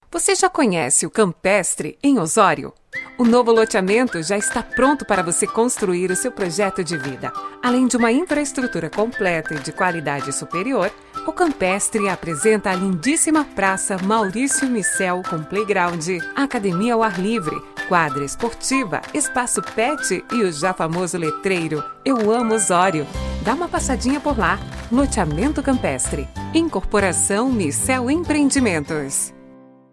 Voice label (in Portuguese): Spot Campestre